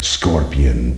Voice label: "scorpion", announce; Names